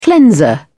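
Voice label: "cleanser", English, uk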